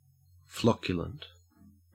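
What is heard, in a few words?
"flocculent" English, Australian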